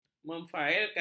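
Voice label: "memfailkan", Malay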